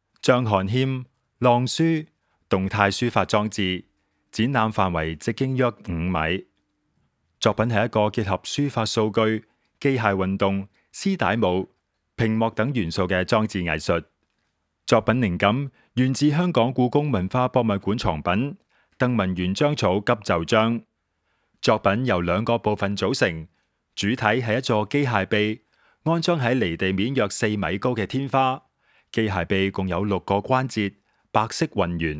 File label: AD VO, audio guide